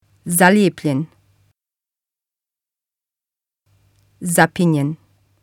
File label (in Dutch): "zaljepljen", bosnisch